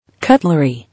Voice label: "Cutlery", English